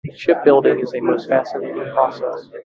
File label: Enhanced Speech Babble Noise SNR 0 dB